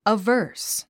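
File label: "averse", English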